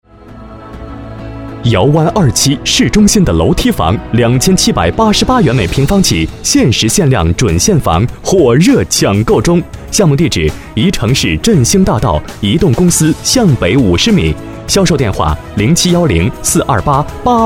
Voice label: 男 中文 广告类 大气房产

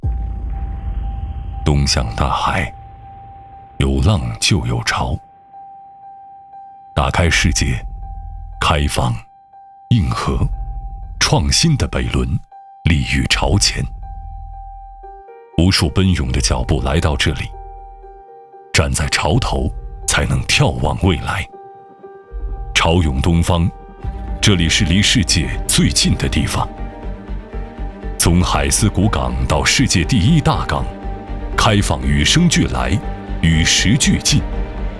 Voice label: 男 宣传片 招商; 北仑

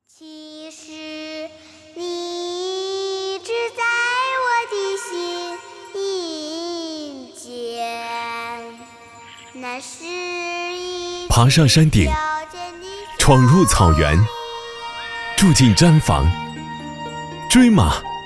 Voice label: 男 走心 信用卡 青春朝气风格

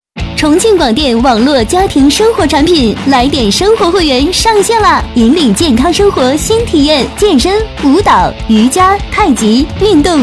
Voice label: 女 促销广告 青春欢快广告

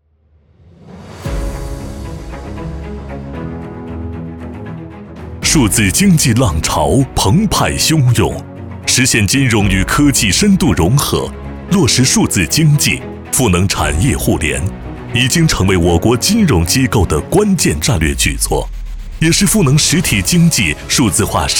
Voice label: 男 宣传片 大气金融; 平安银行

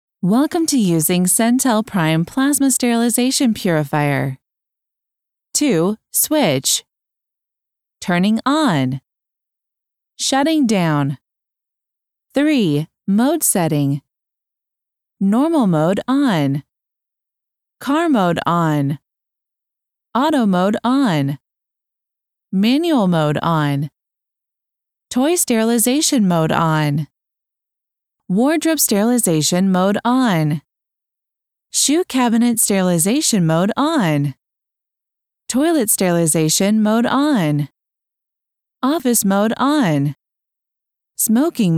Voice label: 新风消杀净化器语音提示

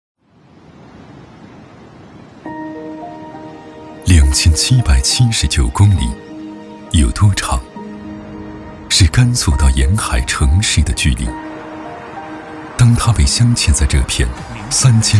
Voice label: 男 宣传 品牌 片 温暖走心 四好农村

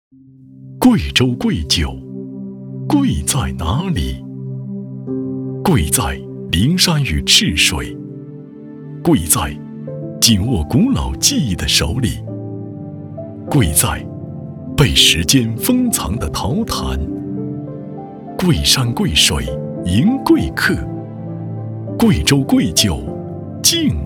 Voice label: 男 品质广告类; 贵州贵酒

